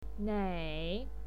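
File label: "nei" third tone, pinyin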